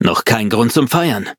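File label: levelup, German; Cookie Run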